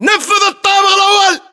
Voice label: location first floor window, battle chatter, english